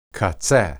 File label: "qatseh", Hebrew